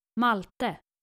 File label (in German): "malte", nordische jungennamen